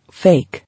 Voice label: "Fake", English